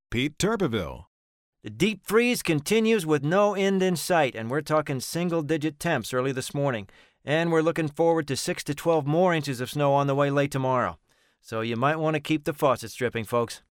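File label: meteorologist, voice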